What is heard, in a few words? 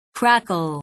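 "crackle", English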